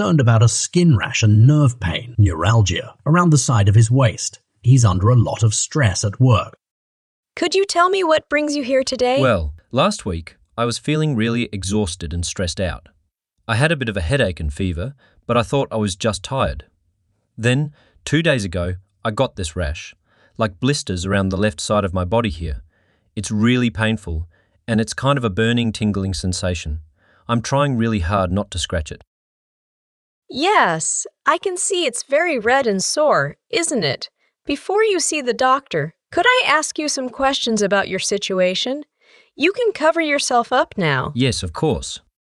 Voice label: Conversation; Bedside Manner Intermediate